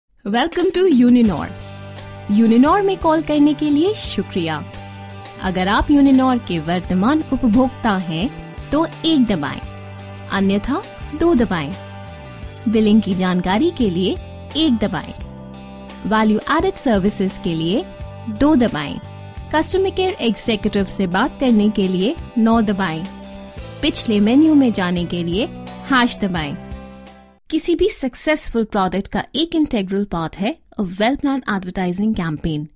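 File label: hindi, delhi, female voice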